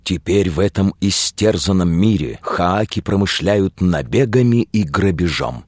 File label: narr